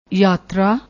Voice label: "yatra", Punjabi